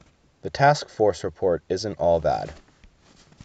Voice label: mixed bus clean